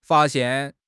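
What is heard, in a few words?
"fa1 xian4", Chinese; HSK; HSK3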